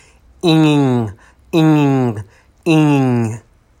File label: NG Voiced